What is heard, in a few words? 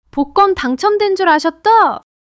excitement